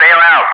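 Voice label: "bail out", English speech, Wingman; Messages; DCS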